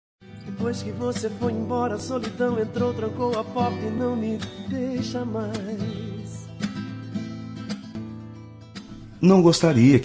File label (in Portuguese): Romântica pra Ex